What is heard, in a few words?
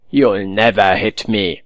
taunt, voice